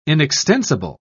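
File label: "inextensible", British English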